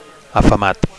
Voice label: "afamat", Catalan; hungry